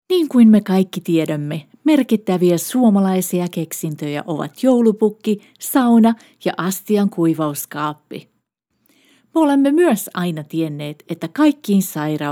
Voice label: Female Finnish Commercial; Koronaterva

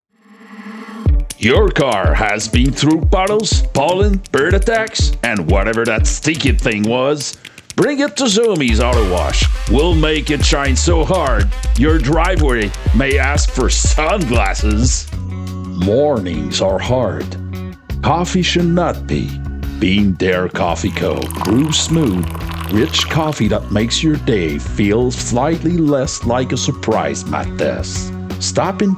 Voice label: Commercial

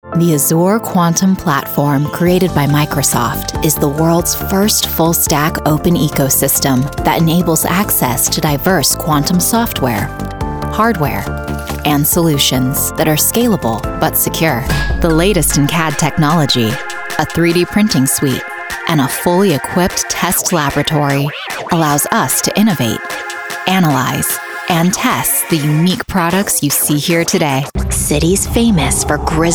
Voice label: Corporate Narration

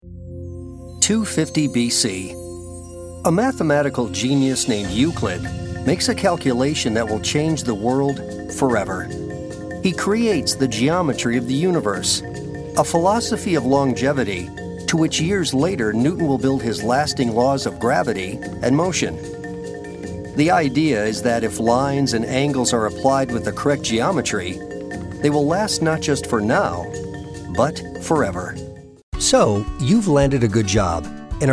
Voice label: Narration

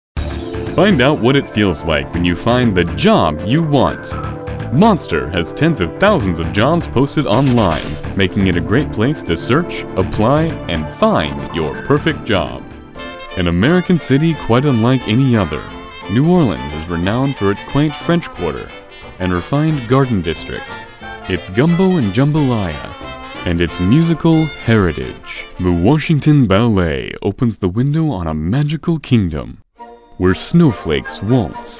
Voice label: Commercial Narration

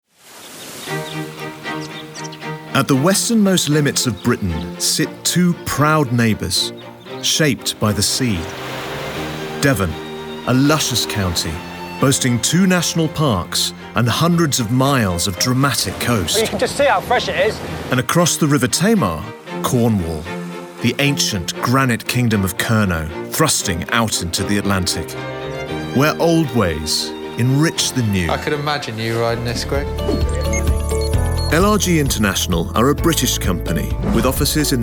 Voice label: narrative

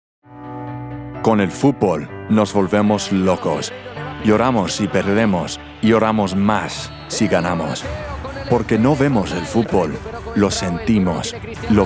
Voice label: Spanish Commercial

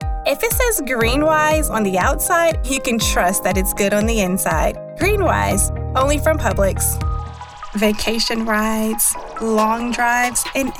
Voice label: Commercial Voiceover

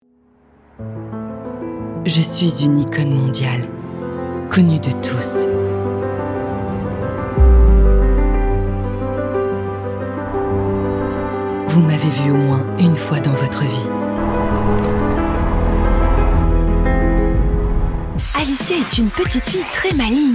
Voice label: Showreel Commercial

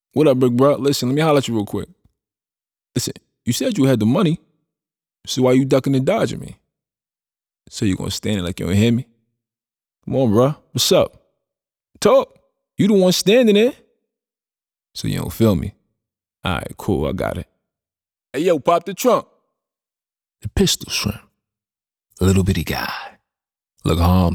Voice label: URBAN

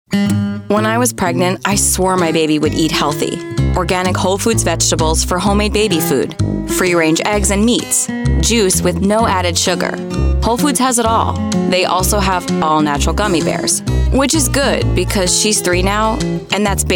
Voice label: Commercial

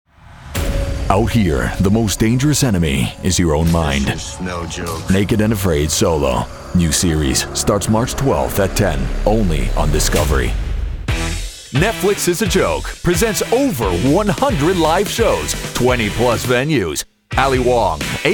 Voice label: Promo

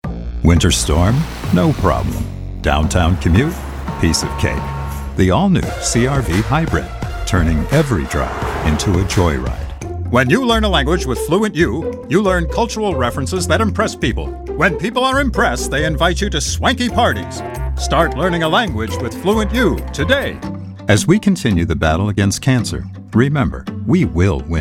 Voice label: COMMERCIALS

